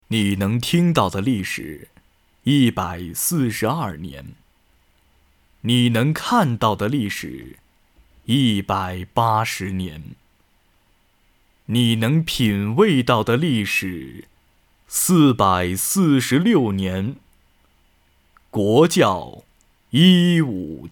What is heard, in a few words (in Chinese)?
广告